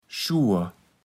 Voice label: "Schur", platt

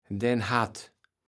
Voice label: "dänhat", kaevels platt

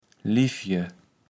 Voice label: "Liefje", kaevels platt

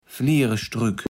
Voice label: "Flierestrück", Platt

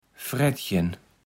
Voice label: "Frettchen", Platt

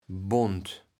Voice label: "bond", kaevels platt

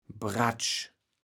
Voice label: "Bratsch", kaevels platt

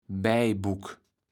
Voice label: "Baejbuk", kaevels platt

